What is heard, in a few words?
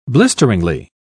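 "blisteringly", British English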